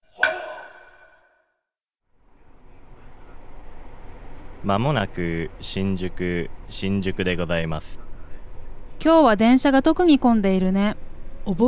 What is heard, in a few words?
dialog; JapanesePod101; Beginner Season 1